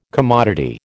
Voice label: "commodity", American

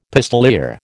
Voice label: "pistoleer", American

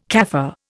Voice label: "Kaffir", Indian

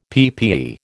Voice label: "PPE", American

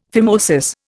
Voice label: phimosis, Indian; Dictionary

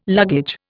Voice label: "luggage", Indian

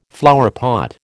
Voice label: "flowerpot", American

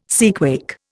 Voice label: "seaquake", Indian

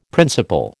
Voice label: "principle", American

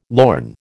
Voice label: "lorn", American

